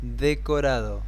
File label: Set spanish